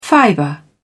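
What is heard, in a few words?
"fiber", English, British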